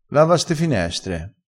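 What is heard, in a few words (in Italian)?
"ste", vocabolario dialettale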